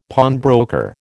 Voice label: "pawnbroker", American